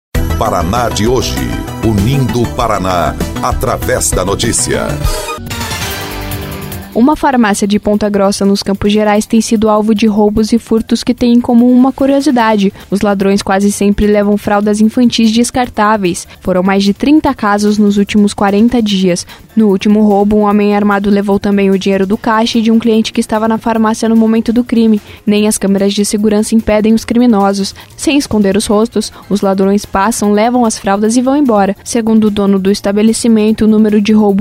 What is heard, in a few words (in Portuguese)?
BOLETIM; Ladrões assaltam farmácia de Ponta Grossa e levam item curioso